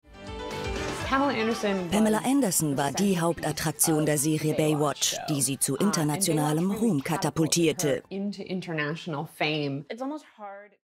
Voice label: Over Voice; ARTE; Pamela Anderson

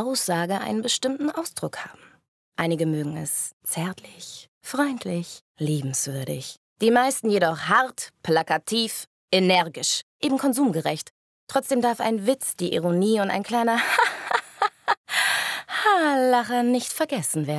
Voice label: werbung vielerlei arten

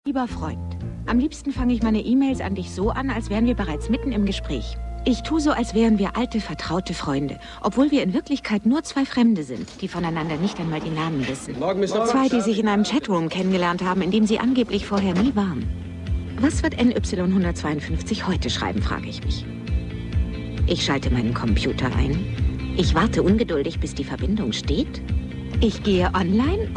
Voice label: erzaehlung

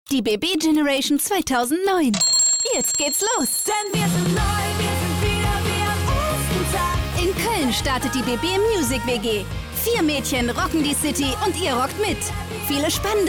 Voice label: werbung jung rockig laut bebe

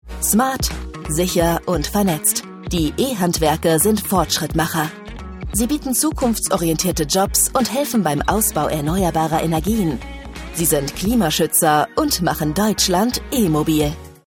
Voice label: Werbefilm; LOFT VOICES

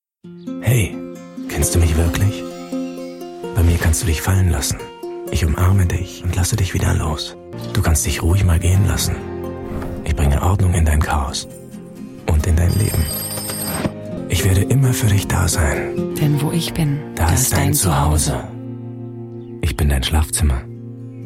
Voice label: werbung tv; ikea

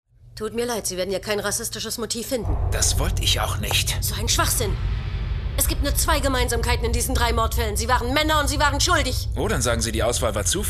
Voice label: drama taffe rechtsanwaeltin; oz hoelle hinter gittern

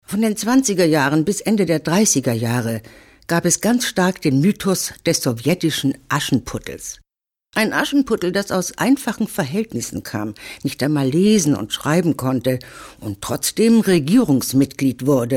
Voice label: kommentar

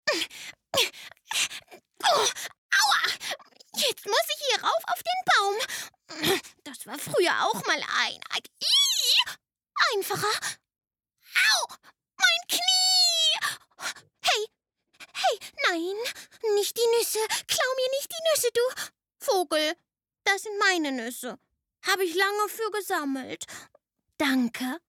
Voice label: Zeichentrick; LOFT VOICES

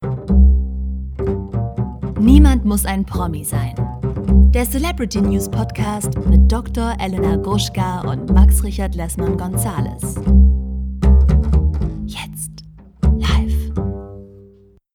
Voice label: Podcast Intro

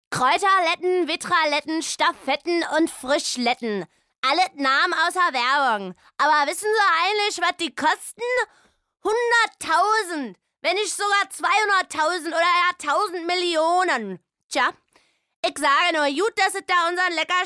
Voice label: dialekt

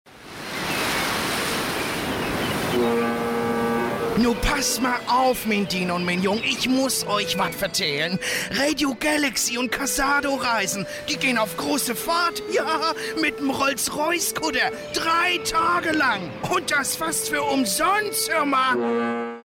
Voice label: spot; hamburg; tk

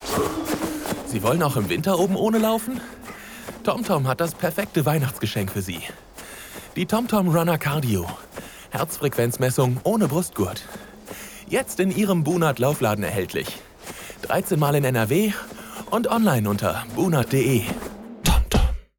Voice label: funkspot; tomtom; LOFT VOICES

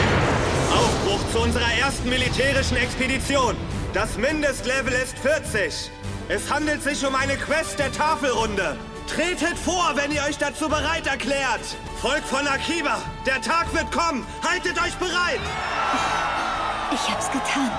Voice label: Ansprache zum Kampf, Lip-Sync (Synchron), German; Log Horizon (Crunchy Roll) - Krusty (HR); Game, Trick

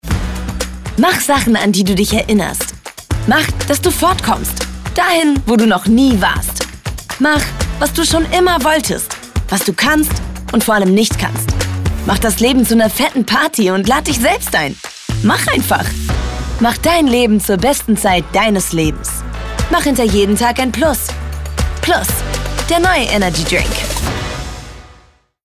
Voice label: Werbung Energy, Werbung - Energetisch, Commercial (Werbung), German; voice

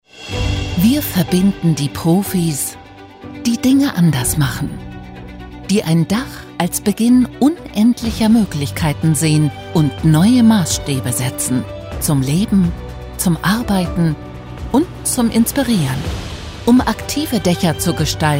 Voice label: Markenfilm DE, Comment (Kommentar), Presentation, German; icopal Dächer für die Zukunft

